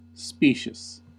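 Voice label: "specious", American English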